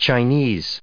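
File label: "Chinese", US English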